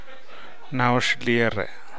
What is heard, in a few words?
daughter, Namolukese